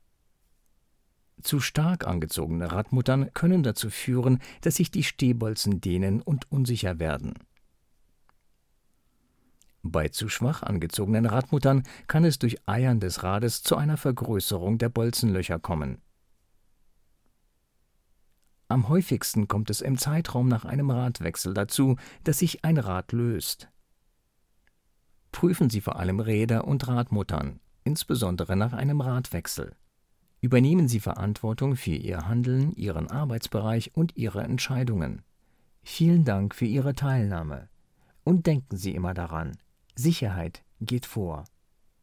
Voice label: Home studio raw recording